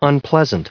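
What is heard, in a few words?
"unpleasant", US English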